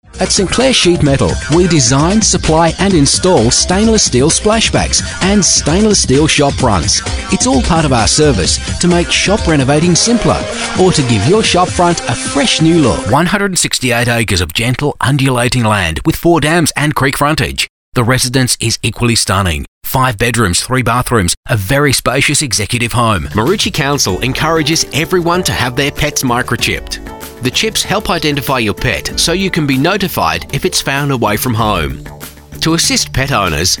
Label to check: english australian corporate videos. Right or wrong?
Right